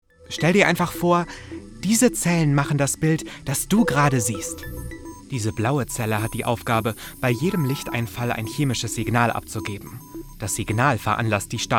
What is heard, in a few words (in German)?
Synchron Erklaerfilm